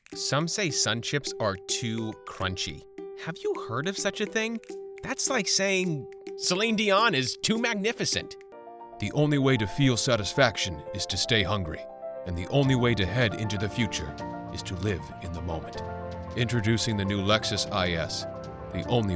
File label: commercial reel